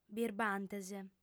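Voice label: "birbantes", female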